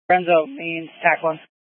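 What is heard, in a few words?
Incident Tac 1, Scanner audio recording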